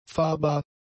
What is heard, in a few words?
"fahrbar", German; adjectives